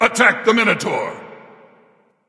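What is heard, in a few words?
vox attack, male; smite; vulcan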